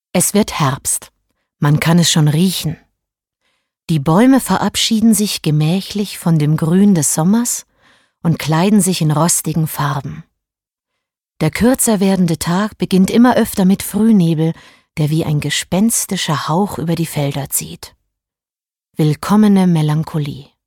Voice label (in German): Sprechprobe Erzaehlung